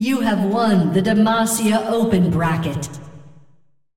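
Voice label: announcer female tournaments win open bracket; Clash; demacia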